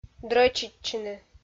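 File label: "дрӧчитчыны", Komi, female; kpv audio dict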